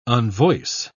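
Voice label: "unvoice", English, British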